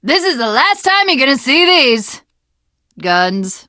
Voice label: jumping off bridge, teengirl; Left 4 Dead 2; Source Engine; video games